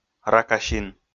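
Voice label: "ракасин", Komi, male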